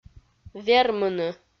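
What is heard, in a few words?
"вермыны", Komi, female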